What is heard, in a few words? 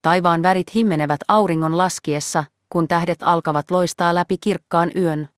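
Finnish; fi-FI; iOS Sounds; suvi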